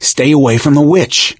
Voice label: witch getting angry; source engine; left 4 dead 2; survivor; gambler

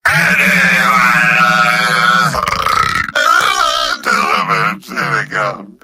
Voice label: gibberish

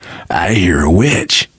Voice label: heard witch, survivor voice

